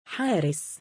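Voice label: "7aaris" male, Arabic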